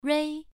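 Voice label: "rui", Mandarin Chinese syllable, first tone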